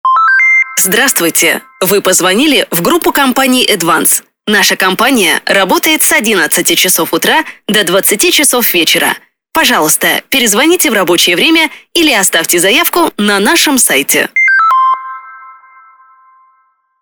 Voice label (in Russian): IVR ИНФОРМАТОР Оператора Нет На Месте; Эдванс